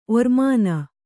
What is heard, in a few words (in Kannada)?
"ಒರ್ಮಾನ", Kannada